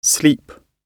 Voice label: "sleep", English